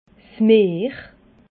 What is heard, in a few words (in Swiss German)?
"meer", alsace